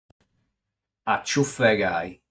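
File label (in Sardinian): "Aciufegai", Sardinian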